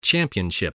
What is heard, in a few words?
"championship", English, voice